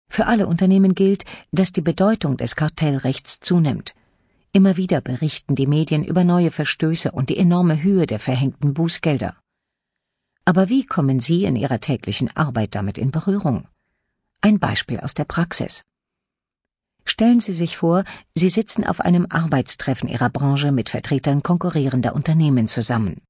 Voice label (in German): E-Learning Kartellrecht